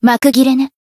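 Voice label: Voice finish, Japanese; sophia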